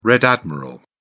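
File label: "red admiral", British English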